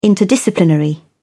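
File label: "interdisciplinary", English, British English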